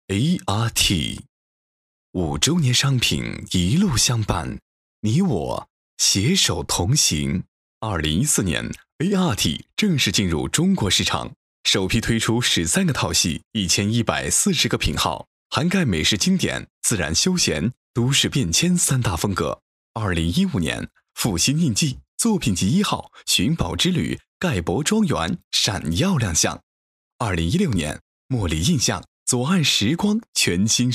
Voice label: 广告类

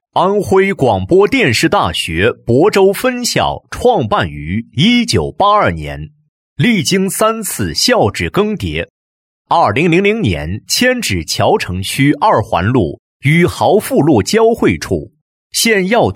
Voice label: 男, 学校专题, 介绍词; 亳州电大

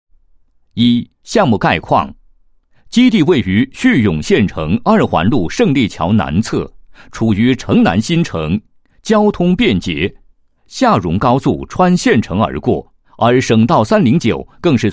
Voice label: 男 项目汇报; 某商贸城项目汇报案

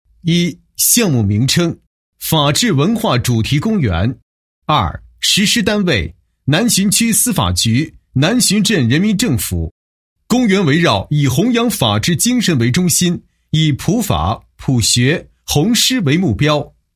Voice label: 男 工程项目 自评工作; 项目建设情况

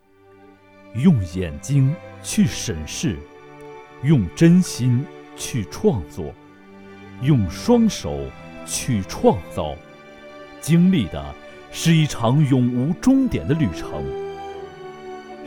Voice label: 男 专题, 国语男声配音, 浑厚; 卡威皮卡

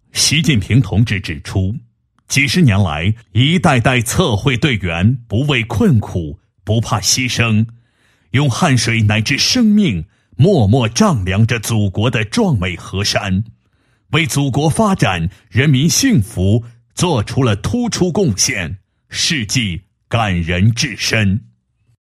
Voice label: 男-宣传介绍; 国土局; 国土资源遥感监测中心宣传介绍

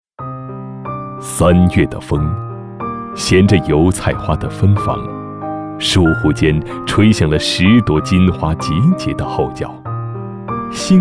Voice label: 男 同学会诗歌 三月的风

